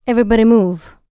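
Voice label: licks, female spoken; ILIO; Vocal Planet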